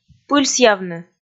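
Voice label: "пыльсъявны", Komi, female; kpv audio dict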